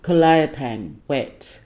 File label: "kulayatang" wet